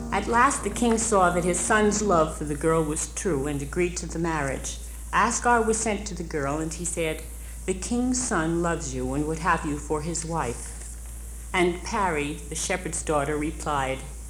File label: Narration; pari and the prince; musical for children